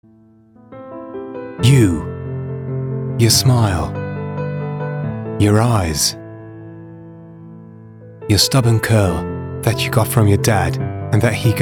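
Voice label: British English, Sanft; Fremdtake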